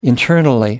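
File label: "internally", British English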